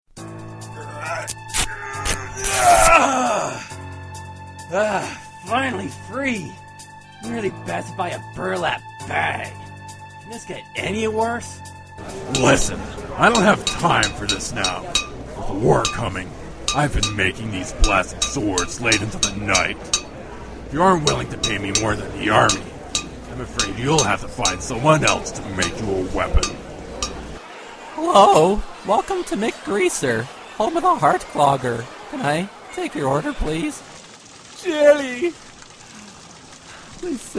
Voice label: character demo

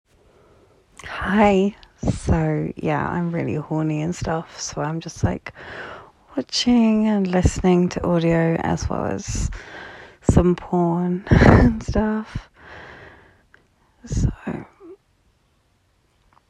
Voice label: F4M, TOY PLAY, BRITISH ACCENT, FAN NOISE; DADDY; Happy Monday

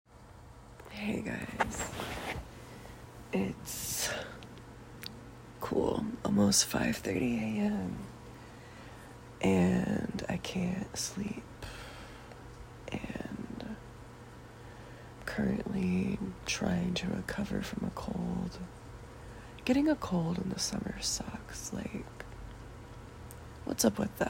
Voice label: F4A sleepy 5am rambling; lots of rambles

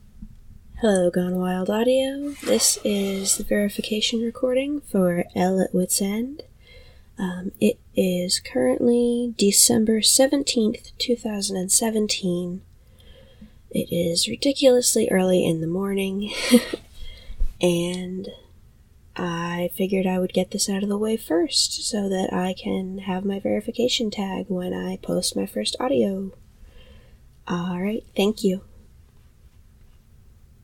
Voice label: Female voice

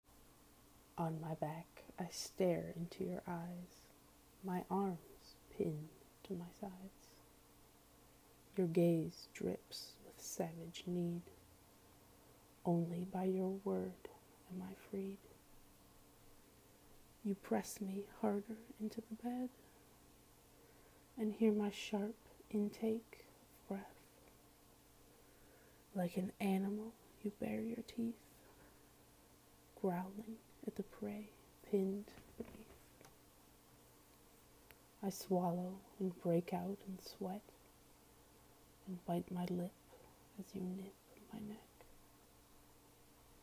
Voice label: Erotic Poetry; F4A

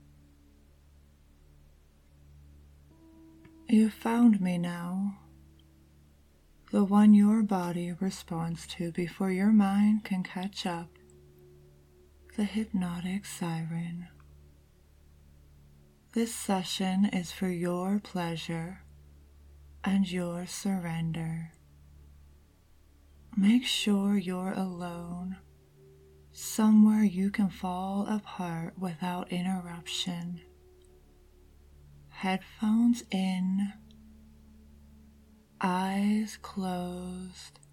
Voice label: Hypnosis, Trance; Mind Control; CNC; F4A; Surrender to the Siren

